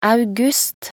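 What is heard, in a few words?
"august", Norwegian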